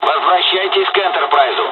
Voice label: us evac, dialogs russian; midway; War Thunder

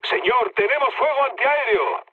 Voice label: damaged aa, mood med, speech; War Thunder

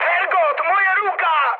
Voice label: damaged pilot, speech cze, mood high; War Thunder

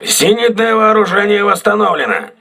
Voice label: voice message aa turret repaired, russian

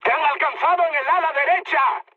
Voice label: right wing, mood high, speech; War Thunder

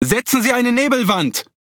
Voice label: Speech Quick Commands Need Smoke, DE; World Of Warships

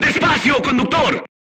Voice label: voice message drive slowly, crew tanks spanish

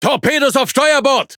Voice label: De Speech Alarms Torpedo Right; World Of Warships

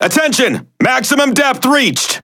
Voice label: En Speech Alarms Sub Max Depth; World Of Warships

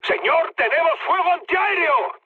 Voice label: damaged aa, mood high, speech; War Thunder

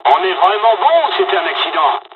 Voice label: dialog, dialogs french; War Thunder; midway

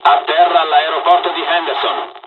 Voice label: landing, dialogs italian; War Thunder

